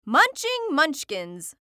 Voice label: Cartoon Voice Female; Cartoon Voices; Blastwave